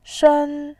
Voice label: "shen1", voice